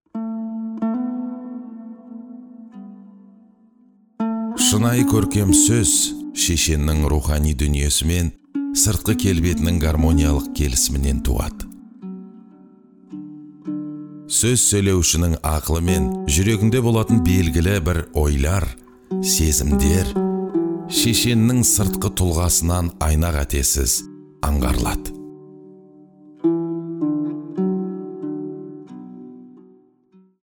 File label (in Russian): КАЗ, дикторы озвучка